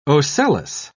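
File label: "ocellus", British English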